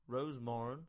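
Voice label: English; Rosemorran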